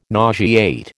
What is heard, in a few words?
"nauseate", American